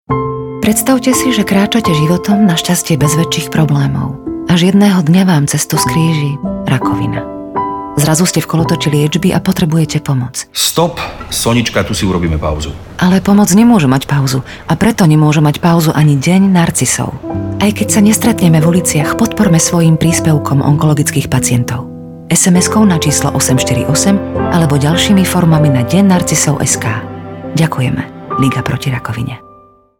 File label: radiospot; den narcisov